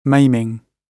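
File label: "maiming", British English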